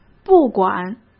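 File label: "不管", Mandarin Chinese; chinese-flashcards; hsk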